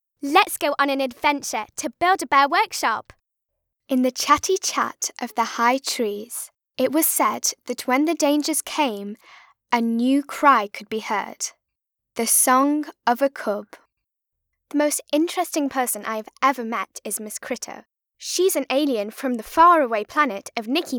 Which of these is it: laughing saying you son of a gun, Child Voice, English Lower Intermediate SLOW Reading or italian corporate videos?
Child Voice